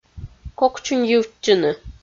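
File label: "кокчуньйывтчыны" Komi, female; audio dict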